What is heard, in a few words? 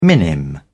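"minim", British English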